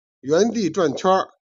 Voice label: "jian", Chinese

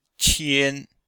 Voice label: "qian1", chinois; Cours-de-Chinois

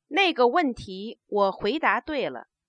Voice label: declarative, Chinese

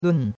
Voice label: "lun4", chinois; Cours-de-Chinois

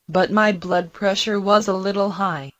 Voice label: Mandarin Conversation

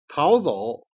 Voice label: "jian", Chinese

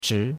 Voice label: "chi2", chinois; Cours de Chinois

